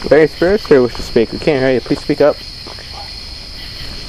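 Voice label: inaudible response, evp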